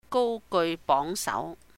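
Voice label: "Gou Geui Bong Sau", Cantonese; ranked first; 高踞榜首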